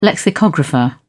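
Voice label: "lexicographer", British English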